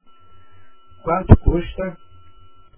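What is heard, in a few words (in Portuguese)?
"quanto custa" Portuguese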